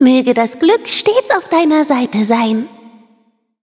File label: loading, de; Cookie Run